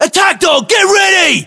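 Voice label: threat dog generic, British; english; uk; localized english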